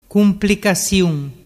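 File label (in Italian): "cumplicasiun", Vernazzese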